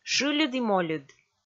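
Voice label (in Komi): "шыльыд и мольыд", Komi, female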